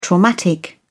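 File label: "traumatic", British English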